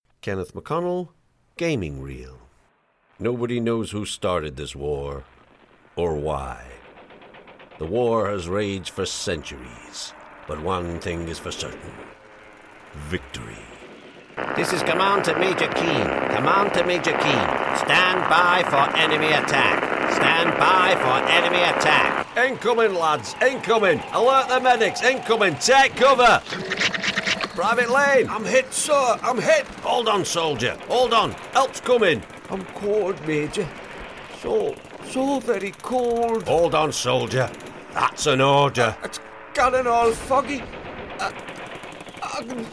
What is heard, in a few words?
game, game dubbing male voices british accent